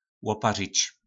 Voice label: "Łopařič", nářečí Po našimu; Opařit

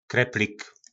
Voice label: "Kreplik", nářečí Po našimu; Kobliha

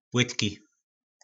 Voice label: "Płytki", nářečí Po našimu; Mělký

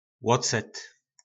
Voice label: "Łocet", nářečí Po našimu

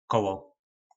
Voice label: "Koło", nářečí Po našimu; Kolo

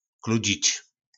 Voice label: "Kludźič", nářečí Po našimu; Vést (za ruku,kolo ap.)

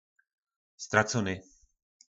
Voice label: "Ztracóny", po našimu; Ztracený

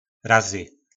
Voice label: "Razy", nářečí Po našimu; Krát

